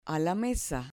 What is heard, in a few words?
"mesa", Spanish